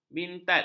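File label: "bintat", Malay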